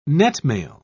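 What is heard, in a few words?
"netmail", British English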